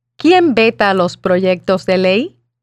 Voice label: Spanish